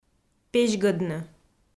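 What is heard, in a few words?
"пезьгӧдны", Komi, female; audio dict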